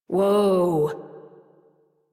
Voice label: Horse Stop Walk Adult; Warframe; Duviri